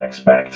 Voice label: "expect", robot vox

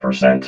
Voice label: "percent", robot

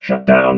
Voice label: "shutdown", robot

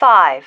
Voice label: "five", female

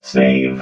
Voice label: "save", robot

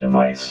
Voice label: "device", robot vox

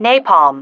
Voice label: "napalm", female

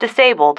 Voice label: "disabled", female

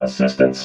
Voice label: "assistance", robot vox

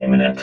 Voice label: "imminent", robot

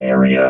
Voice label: "area", robot vox

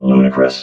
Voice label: "ludicrous", robot

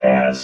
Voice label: "has", robot vox

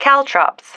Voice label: "caltrops", female

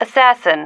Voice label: "assassin", female

